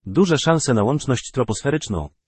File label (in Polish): vhf duze szanse na lacznosc troposferyczna, pl google male; sr0wx